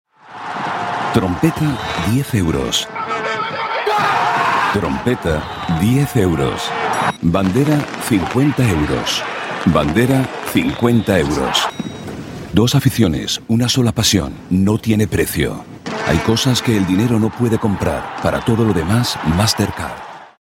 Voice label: spanish voice commercials spanish